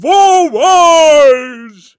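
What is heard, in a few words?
Ded Moroz exclaims surprise; zvuki deda moroza novogodnie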